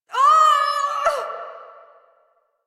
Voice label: Pain, en; Warframe; Corpus Lich; Persona B